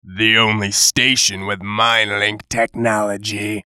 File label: VOICE COMICAL; Radio Voice -The Only Station